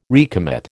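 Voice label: "recommit", American English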